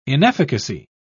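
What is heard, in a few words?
"inefficacy", British English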